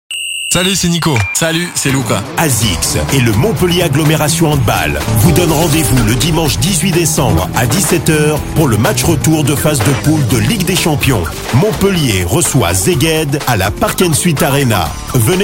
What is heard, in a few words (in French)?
Voix off; MAHB